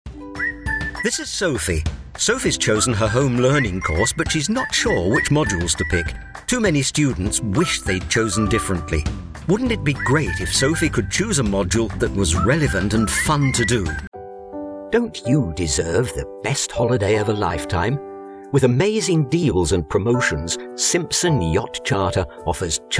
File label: Corporate Commercial